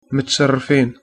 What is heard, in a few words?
meet, arabic